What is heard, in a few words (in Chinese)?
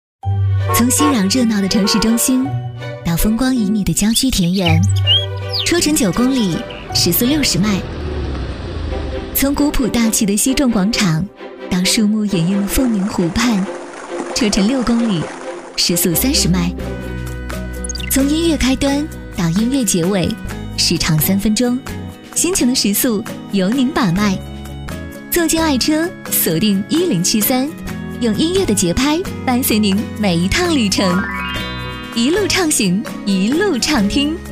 女 电台包装 时尚版